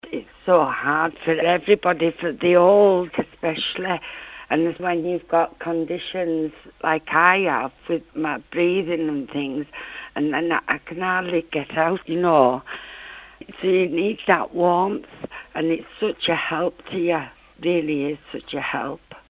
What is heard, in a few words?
vox pop